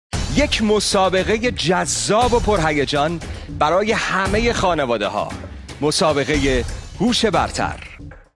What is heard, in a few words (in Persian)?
نمونه صدای آقای; آواگر